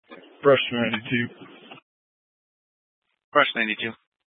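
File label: Fire Dispatch, Scanner audio recording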